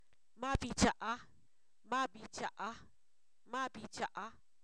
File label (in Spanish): calentado, Zapoteco, san miguel albarradas; adjetivos